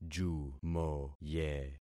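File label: "jumoye", concatenated syllables; Affixing experiment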